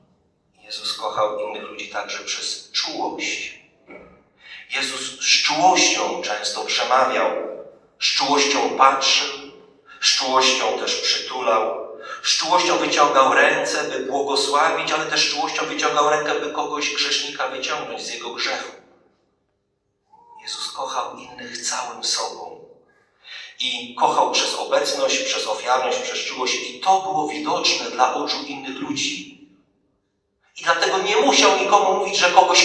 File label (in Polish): Kazanie; Wielki Czwartek